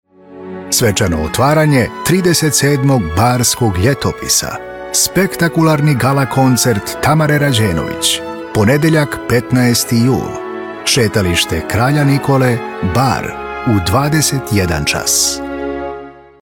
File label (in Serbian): glas spikera crnogorski festival voice over najava koncerta; crna gora